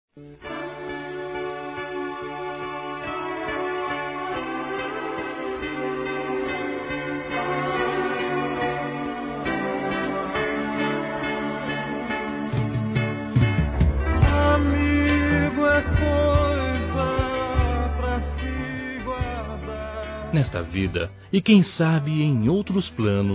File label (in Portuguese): ACEITO PEDIDO DESCULPAS AMIGO, M; Telemensagens 2017